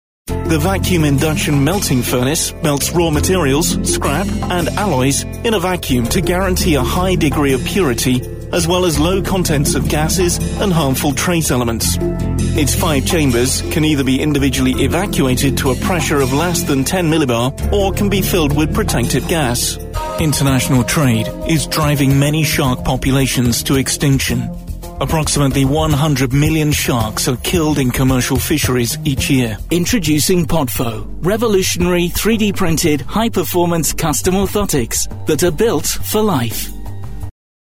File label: Narration